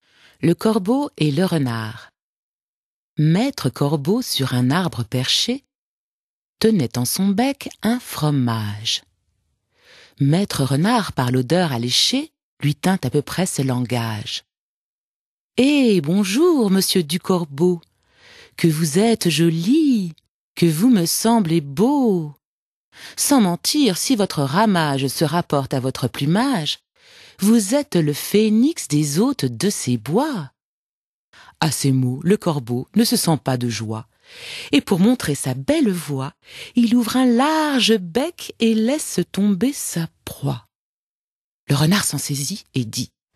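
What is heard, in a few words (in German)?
fabel französisch, Frauen aus aller Welt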